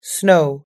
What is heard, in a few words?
"snow", us english